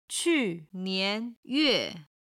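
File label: "qunian yue", Chinese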